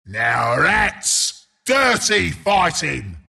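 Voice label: announcer tower deny enemy follow up; Bristleback announcer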